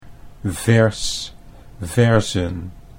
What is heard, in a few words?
"vers verzen", Dutch